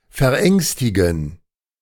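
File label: "verängstigen", German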